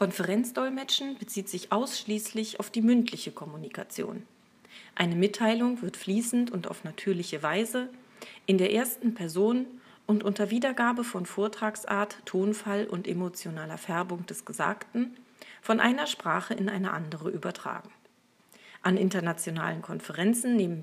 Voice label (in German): Sprechprobe Deutsch